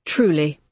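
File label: "truly", British English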